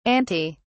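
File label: "antae", British English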